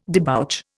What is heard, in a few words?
"debouch", Indian